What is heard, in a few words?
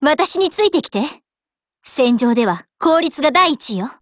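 ATTACK, Japanese; AR15Mod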